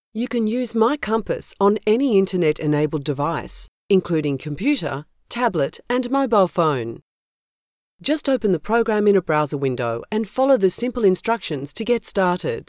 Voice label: explainer; voice realm; mycompass

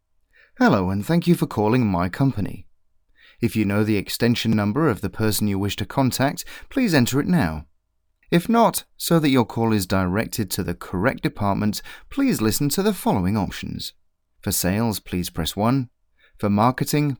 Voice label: Telephone

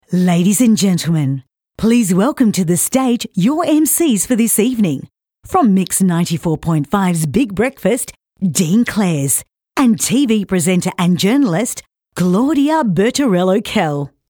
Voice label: Event Voice Over

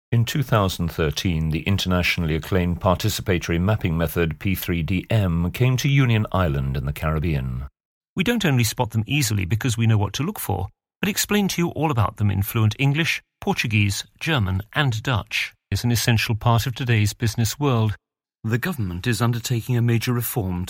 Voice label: Documentary

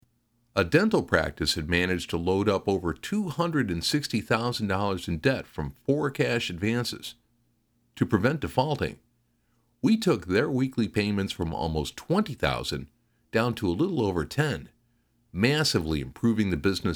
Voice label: Dry Studio

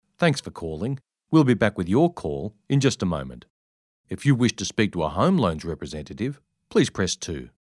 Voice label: IVR

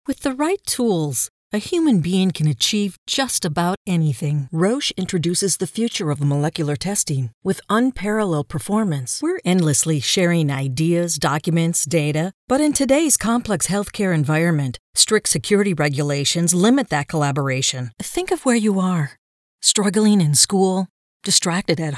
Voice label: Dry voice narration

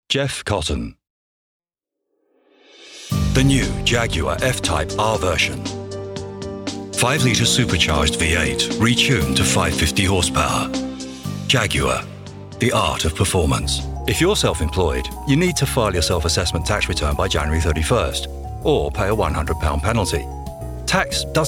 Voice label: Commercial Showreel

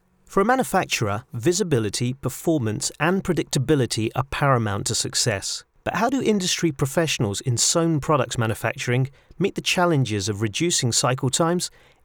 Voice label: explainer sewn industry

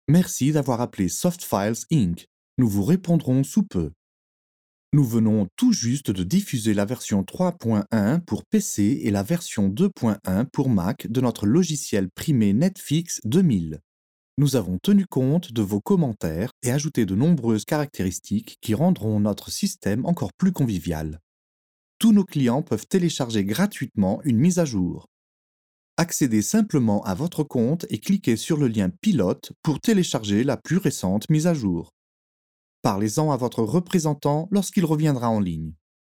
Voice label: French Music On Hold VO Sample

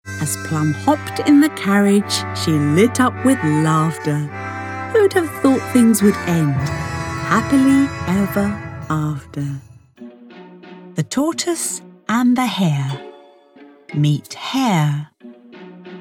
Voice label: Lovely Voice Childrens

